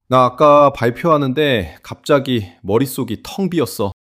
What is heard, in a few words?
fluster